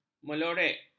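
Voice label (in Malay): "melorek", Malay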